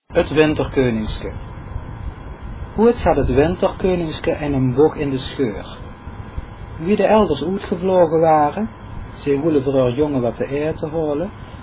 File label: limburgs venlo